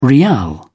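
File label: "riyal", British English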